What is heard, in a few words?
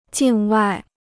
"境外", Chinese